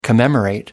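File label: "commemorate", English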